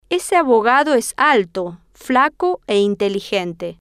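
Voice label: "ese abogado es alto flaco e inteligente", Spanish, female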